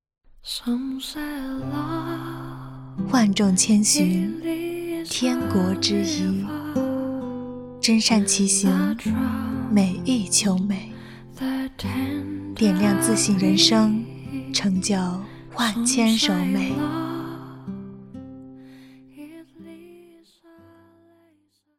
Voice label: 质感广告

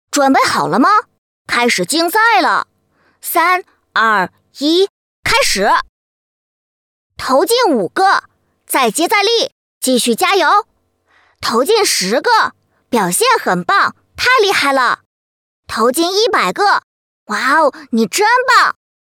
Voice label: 男童

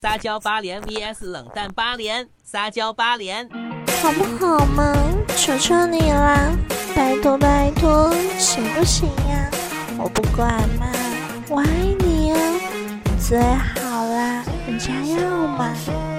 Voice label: 撒娇八连

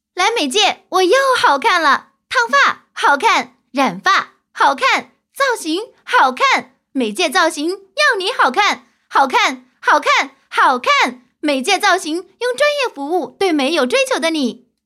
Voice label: 电梯广告; 美界

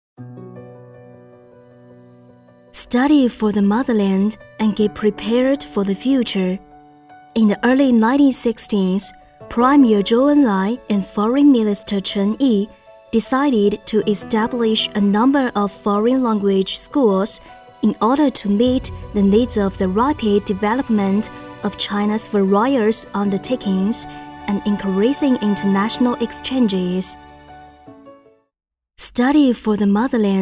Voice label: 英文宣传 大气

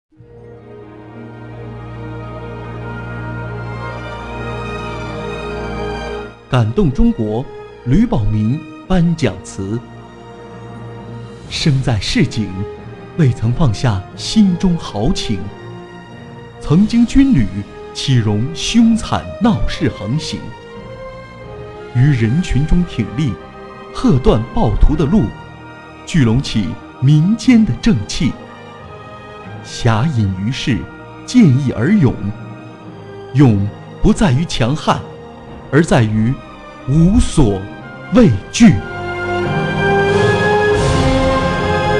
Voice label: 大气

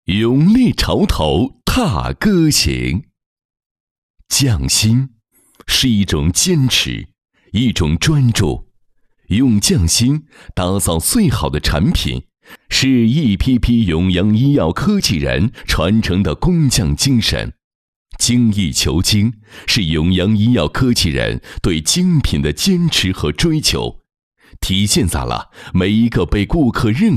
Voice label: 专题片 医药公司

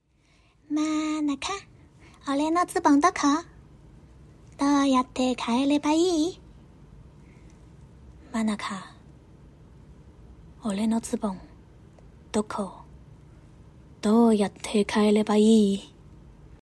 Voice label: 日语配音片段

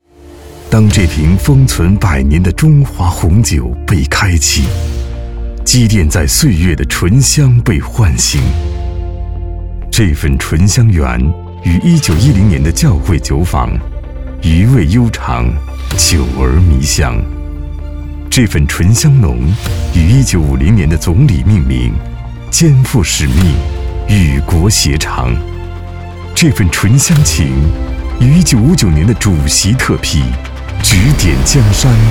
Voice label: 红酒 男; 中华

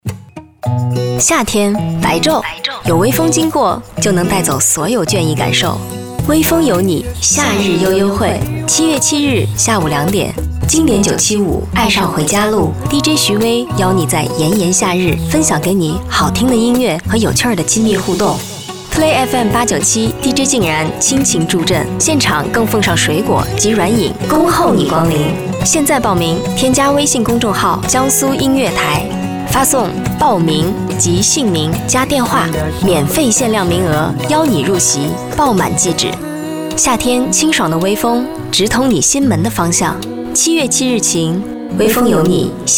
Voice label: 省台频率活动推广宣传; 夏日悠悠会

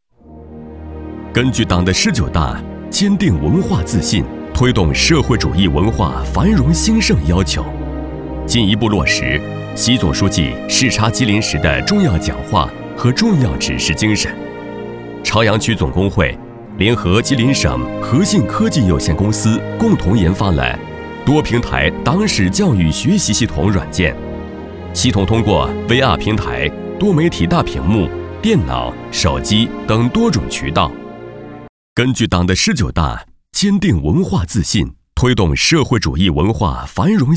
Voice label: 专题浑厚; 吉林朝阳工会

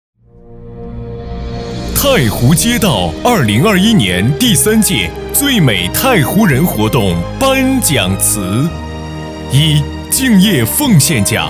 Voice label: 大气激情颁奖样音

